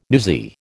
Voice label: "newsy", American